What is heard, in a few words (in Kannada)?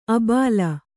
"ಆಭೀಲ", Kannada